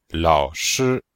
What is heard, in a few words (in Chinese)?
"lao3shi1", Chinese